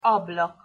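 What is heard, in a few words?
"ablak", Hungarian pronunciation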